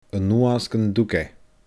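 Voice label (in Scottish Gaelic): "a nuas gun dug e", Scottish Gaelic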